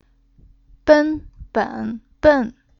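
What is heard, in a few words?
"ben", Chinese syllable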